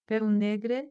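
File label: "peu negre", Occitan Gascon; Prêts pour l’Òc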